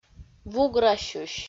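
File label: "вуграсьысь", Komi, female; audio dict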